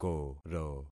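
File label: "koro", concatenated syllables; Affixing experiment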